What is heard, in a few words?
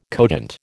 "cogent", American